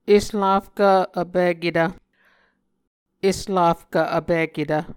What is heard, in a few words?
"eslafkv vpiketv", Muscogee; Mvskoke Words with Voicing Consonants; A Dictionary of Creek/Muskogee; SIL International; Onvkv Ohhvyayices